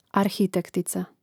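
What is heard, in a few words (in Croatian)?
"arhitektica", Croatian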